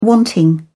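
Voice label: "wanting", English, British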